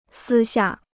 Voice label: "私下", Chinese

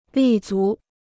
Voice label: "立足", Chinese

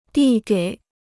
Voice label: "递给", Chinese

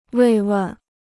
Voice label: "慰问", Chinese

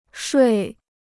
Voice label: "睡", Chinese

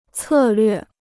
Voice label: "策略", Chinese

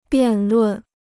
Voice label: "辩论", Chinese

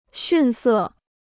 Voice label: "逊色", Chinese

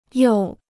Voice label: "又", Chinese

